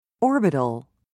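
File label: "orbital", English, British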